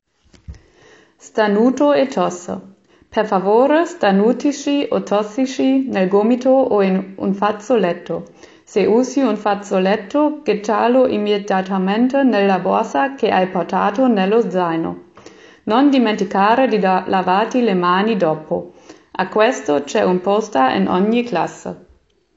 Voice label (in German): Italienisch